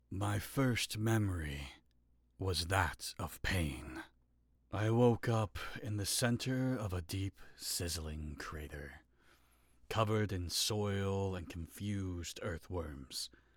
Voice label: Narration Sample